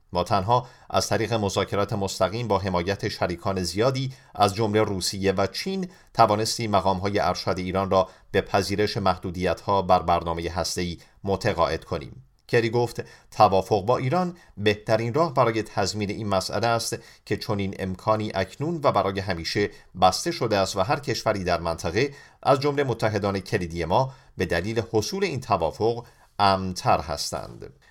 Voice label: farsi male; dictor; dictor-sounds